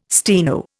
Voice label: "steno", Indian